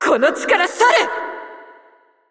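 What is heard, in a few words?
Japanese, assist; VO; ja JP; Irelia; bloom minigame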